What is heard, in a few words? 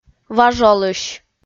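"важолысь", Komi, female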